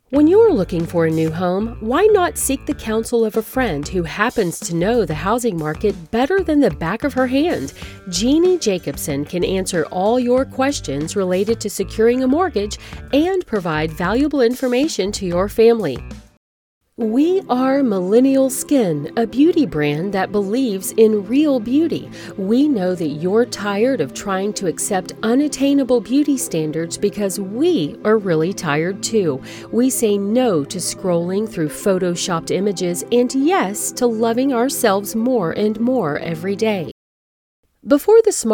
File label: North American, US General American Commercial